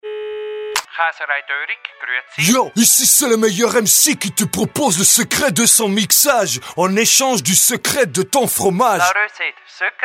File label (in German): werbung; appenzeller rappeur